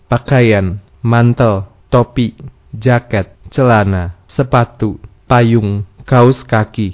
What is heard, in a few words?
indonesian clothes